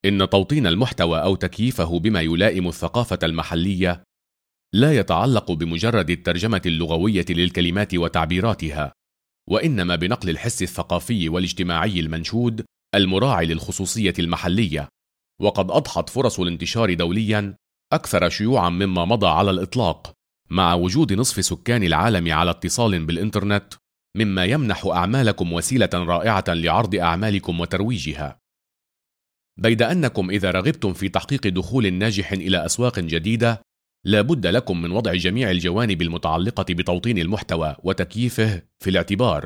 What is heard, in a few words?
arabic male